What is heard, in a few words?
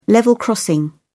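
"level crossing", British English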